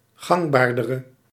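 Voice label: "gangbaardere", Dutch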